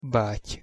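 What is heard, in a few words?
"baty", Hungarian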